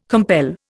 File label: "compel", Indian